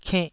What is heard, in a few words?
"kennh2", taiwanese syllables